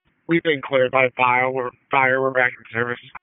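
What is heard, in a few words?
EMS DISP, radio; wake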